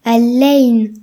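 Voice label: "top", Breton; gcompris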